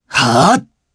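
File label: Vox Casting, Japanese; Theo; kingsraid audio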